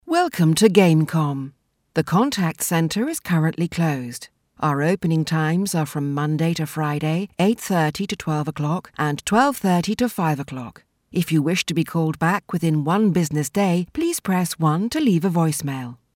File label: IVR; Gamecom